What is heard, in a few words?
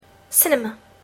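"cinema", English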